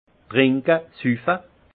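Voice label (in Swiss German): "trinke", alsace Haut-Rhin; vin; boire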